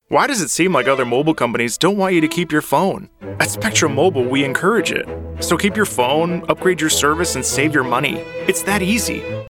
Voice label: Sarcastic